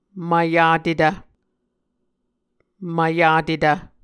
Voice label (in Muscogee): "mvyatetv", Muscogee